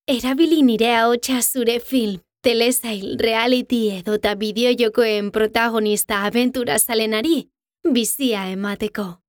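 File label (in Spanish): DOBLAJE EUSKERA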